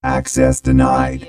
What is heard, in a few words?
Robot voice "access denied"; lynne publishing